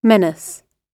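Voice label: "menace", British English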